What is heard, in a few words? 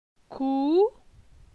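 "Cou", French; phonetique; la syllabe ou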